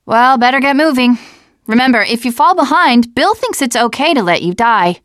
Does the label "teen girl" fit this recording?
Yes